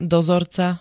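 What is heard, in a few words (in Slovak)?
"dozorca", Slovak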